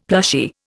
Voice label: "plushy", Indian; Dictionary

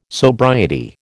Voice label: "sobriety", American

